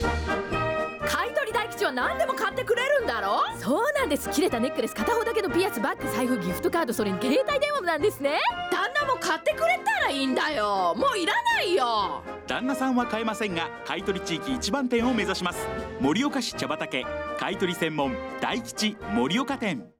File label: radio